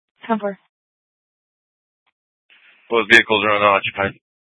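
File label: Scottsdale PD North Dispatch; Nth Dispatch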